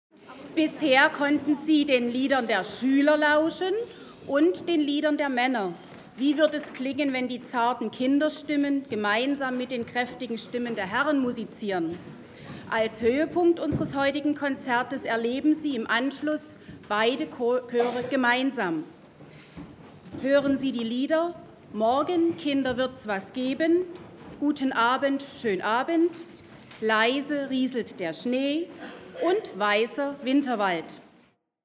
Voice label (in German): Ansage, Chor-Aufnahme